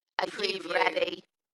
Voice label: UK female, Dalekness; Are you ready

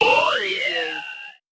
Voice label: robot voice "oh yeah"

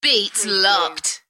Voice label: UK female, Beats locked; beanstalk audio

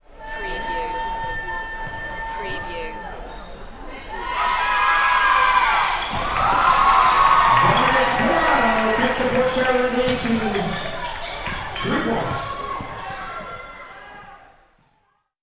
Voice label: Basketball Announcer Speaking with Crowd Cheering; lynne publishing

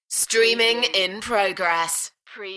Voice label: UK female, Streaming in progress; beanstalk audio

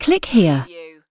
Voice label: UK female click here; lynne publishing

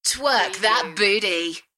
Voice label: UK female; beanstalk audio

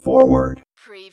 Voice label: Robot voice "forward"; lynne publishing

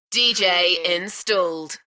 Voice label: UK female; Dj installed

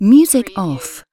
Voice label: UK female music off; lynne publishing

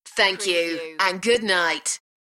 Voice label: UK female Thank you and good night; beanstalk audio

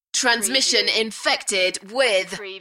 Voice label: UK female, Transmission infected with; beanstalk audio

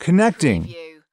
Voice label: US male connecting; lynne publishing

